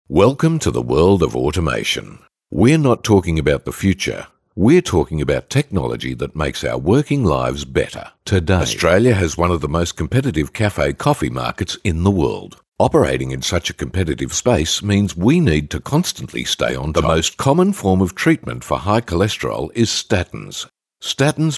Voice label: Corporate